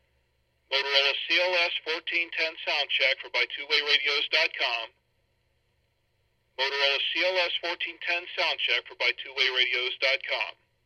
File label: motorola cls1410; radio samples